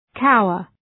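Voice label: "cower", English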